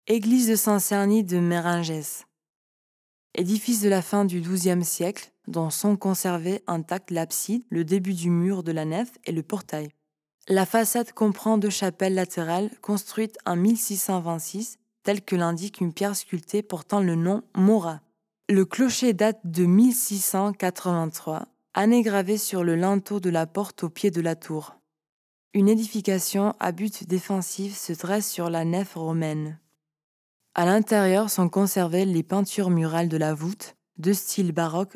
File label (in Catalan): locucio frances; sant serni meranges